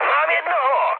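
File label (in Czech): Czech player killed enemy, mood medium; War Thunder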